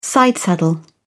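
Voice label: "sidesaddle", British English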